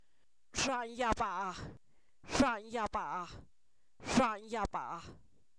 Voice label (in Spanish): cielo, Zapoteco, san miguel albarradas; fenomenos naturales